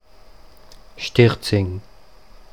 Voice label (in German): Sterzing Mundart